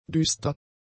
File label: "düster", German; adjectives